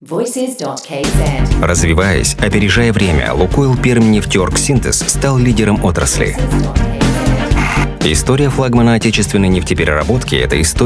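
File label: Russian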